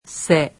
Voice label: "se", japanese; alphabet